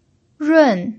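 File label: "run" Mandarin Chinese, fourth tone, pinyin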